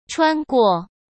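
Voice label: "穿过", Mandarin Chinese; chinese flashcards; hsk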